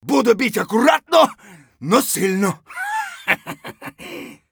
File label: scav mutter, voice; Escape from Tarkov; scav1